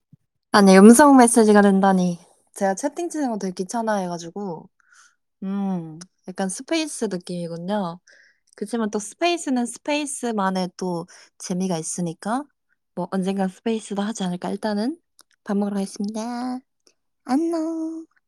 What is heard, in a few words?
voice message